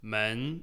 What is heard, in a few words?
"們", Chinese